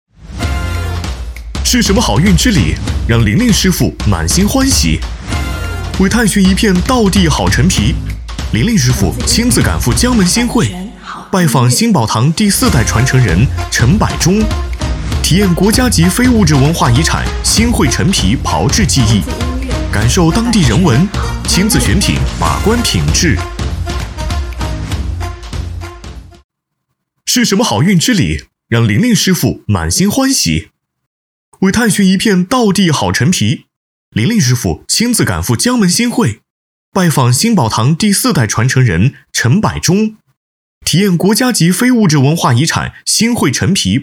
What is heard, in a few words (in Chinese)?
男 广告 年轻激情